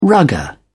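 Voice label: "rugger", English, British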